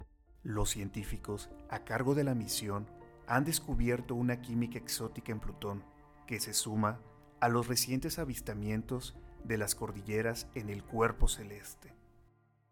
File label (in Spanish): voz documental, locutor